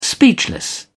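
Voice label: "speechless", English, British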